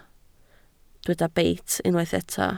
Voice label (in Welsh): "beit", Welsh